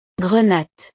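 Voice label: "grenate", French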